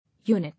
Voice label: "Unit", English